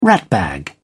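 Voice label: "ratbag", British English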